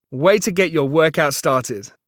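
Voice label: US English workout; iOS Sounds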